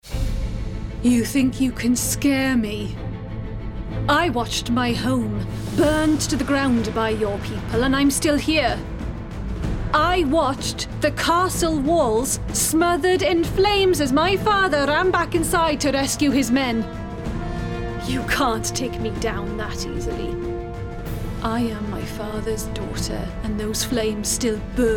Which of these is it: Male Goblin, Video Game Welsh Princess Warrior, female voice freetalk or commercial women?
Video Game Welsh Princess Warrior